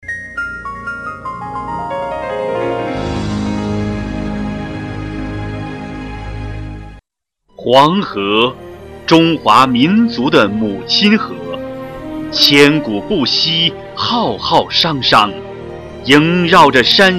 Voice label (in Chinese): 企业 宣传片 男 专题; 晋商